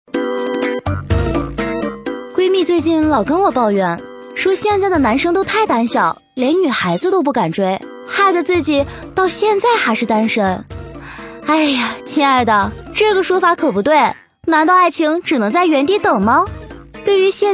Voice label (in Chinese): 女; 追求爱情; 飞碟说